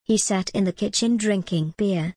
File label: en gb he sat in the kitchen drinking beer